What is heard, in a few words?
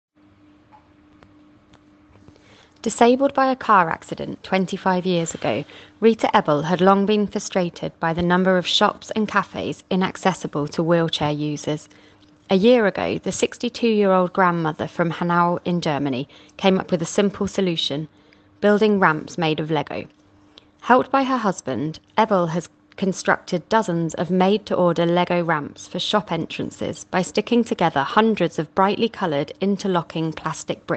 News British